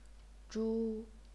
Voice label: "zhu1", chinese pinyin

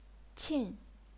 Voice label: "qin4", chinese pinyin

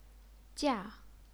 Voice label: "jia4", Chinese pinyin

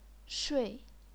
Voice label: "shui4", chinese pinyin

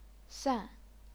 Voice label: "san4", chinese pinyin

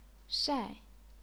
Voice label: "shai4", chinese pinyin

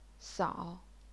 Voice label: "sao3", chinese pinyin

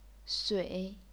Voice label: "sui3", chinese pinyin

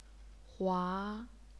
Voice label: "hua2", chinese pinyin

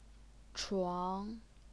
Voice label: "chuang2", Chinese pinyin

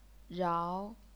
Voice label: "rao2", chinese pinyin

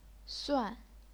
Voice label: "suan4", chinese pinyin

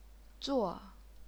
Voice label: "zuo4", chinese pinyin

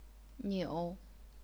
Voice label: "niu3", chinese pinyin

